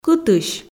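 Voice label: "кытысь", Komi, female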